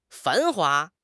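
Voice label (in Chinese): "繁华", Mandarin Chinese; HSK6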